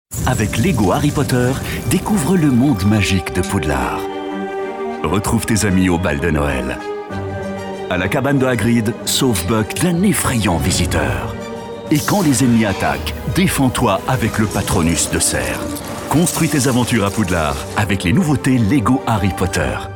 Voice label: SPOT TV; Lego HarryPotter